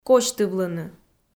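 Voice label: "косьтывлыны", Komi, female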